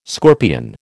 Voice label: "scorpion", American